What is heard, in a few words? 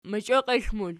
"mochioklexl", Archi